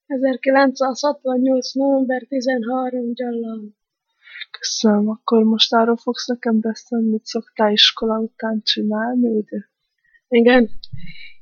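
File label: dialect collection; nyelvjaras gyujtesek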